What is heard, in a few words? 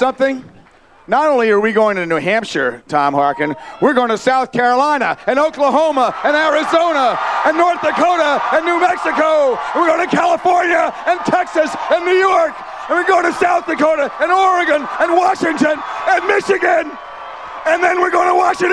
Iowa Caucus Howard Dean Scream Speach